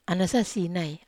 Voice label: "ana sasinai", wamesa

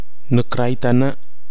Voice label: "nkwai nanimem", Nafe (Kwamera); steps cut into earth

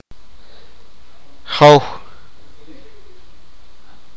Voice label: sipakapense; father

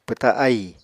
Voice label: "pwta ahì", apatani

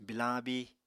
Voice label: "bilañ abíi", Apatani

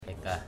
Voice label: bird, Ipili

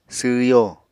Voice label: "sww yo", Apatani

